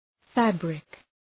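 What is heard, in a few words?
"fabric", English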